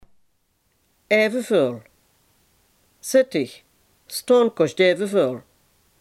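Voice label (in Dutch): "evenveel" Limburgish dialect word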